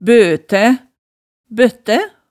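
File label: "bøtæ, bøttæ", Hemsedal dialect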